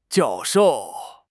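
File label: "jiao4 shou4", Mandarin Chinese; HSK4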